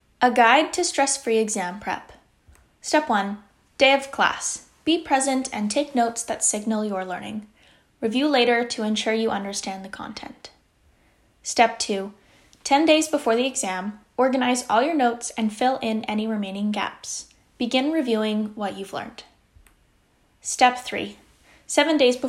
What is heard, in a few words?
Voiceover